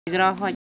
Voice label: Semai Texture

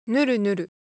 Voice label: Japanese Texture, Ideo Phones

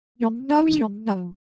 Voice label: Korean, Ideo Phones; Texture

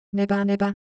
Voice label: Japanese, ideophones; Texture

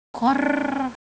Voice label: Semai Sound

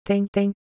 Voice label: Korean Shape; Ideo Phones

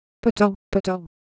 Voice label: Ewe, ideophones

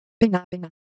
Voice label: Siwu Color Visual

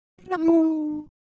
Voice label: Ewe, ideophones; Color Visual

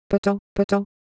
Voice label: Ewe, ideophones

